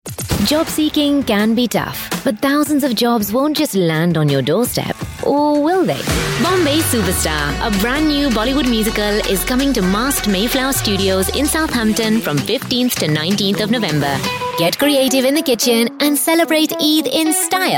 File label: indian accent